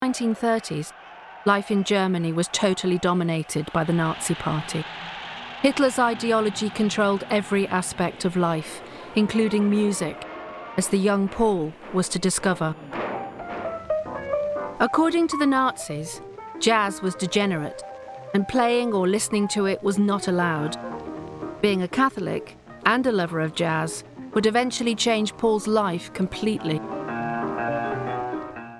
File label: Documentary; Cultybraggan